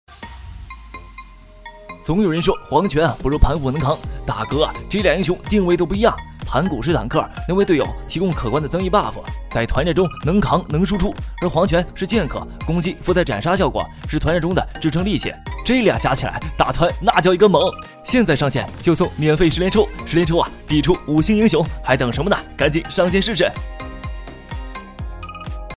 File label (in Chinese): 游戏配音